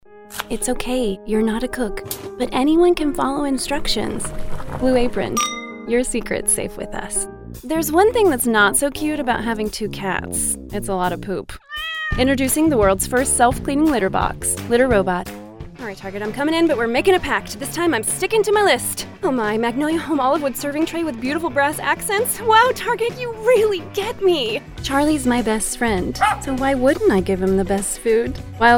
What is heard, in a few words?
VO Commercial